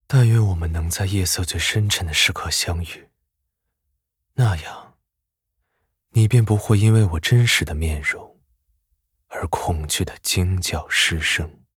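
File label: voice Chinese gain; Phantasio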